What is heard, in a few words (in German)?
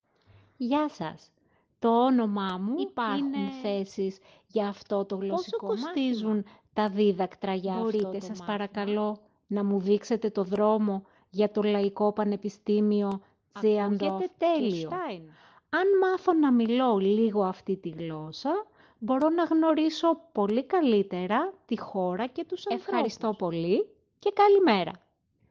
Griechisch alle Saetze